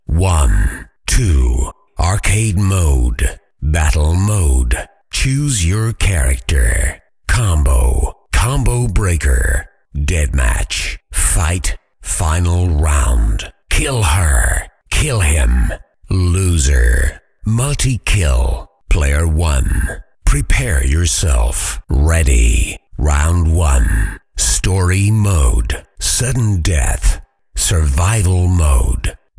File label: Voiceover Pack Fighter; Kenney's Sound Pack